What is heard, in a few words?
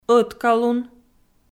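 "ӧткалун", Komi, female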